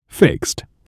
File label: "fixed", English